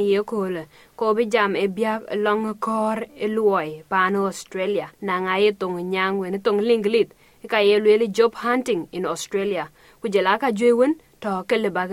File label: dinka; Kɔr në luoi ee Australia; SBS Dinka